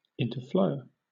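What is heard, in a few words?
"interflow", British English; pronunciations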